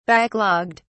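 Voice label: "backlogged", British English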